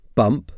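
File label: "bump", English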